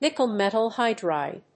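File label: "Nickel Metal Hydride", English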